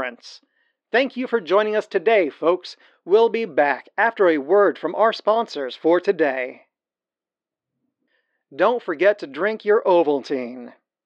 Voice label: Radio Show Announcer Filter; The Chicago Shadow